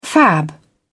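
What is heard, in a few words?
"fab", British English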